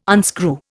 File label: "unscrew", Indian